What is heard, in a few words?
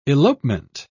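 "elopement", British English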